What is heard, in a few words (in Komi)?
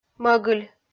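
"мӧгыль", Komi, female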